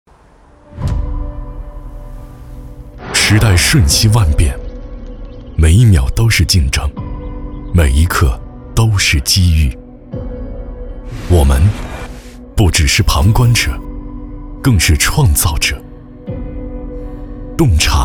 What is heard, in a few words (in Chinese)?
男, 宣传; 吉利